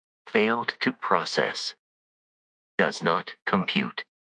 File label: upset; fertig classic games